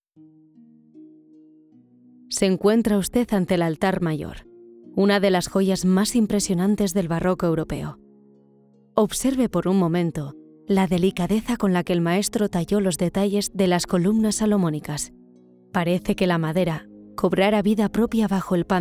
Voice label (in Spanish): Narrativo Castellano